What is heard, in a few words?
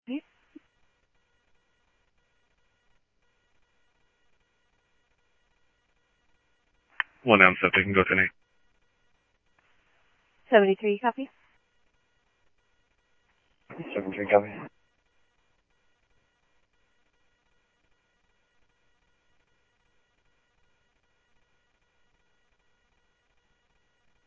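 Mesa Police Department, scanner audio trunk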